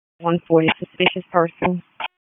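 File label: Sheriff's Office Dispatch 1, p25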